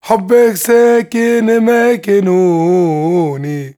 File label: MAN VOICE, Arabian Traditions; USB Soundscan vol.43; AKAI